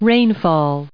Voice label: "rainfall", en us

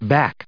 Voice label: "back", en us

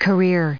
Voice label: "career", us

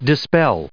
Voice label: "dispel", en us

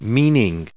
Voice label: "meaning", us

